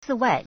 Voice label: "cǐwài", Chinese; 此外; conjunction; besides; in addition; moreover; furthermore